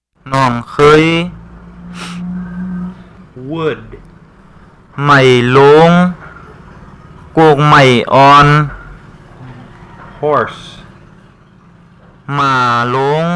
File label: Tai Nua